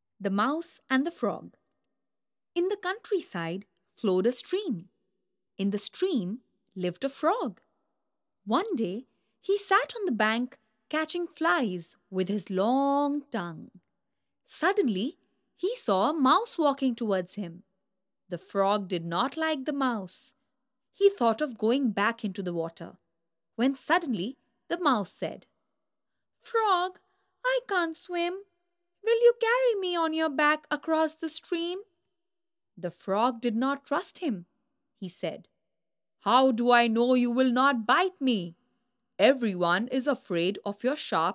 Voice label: English Female